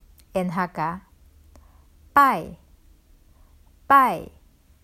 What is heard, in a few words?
Pai 拜 Hakka; The Duke Who Didn’t: Glossary & Pronunciation Guide